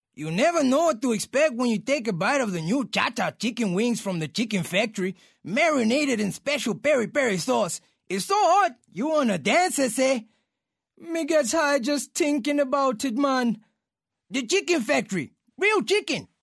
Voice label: Accent Mexican